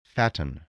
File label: "fatten", spelling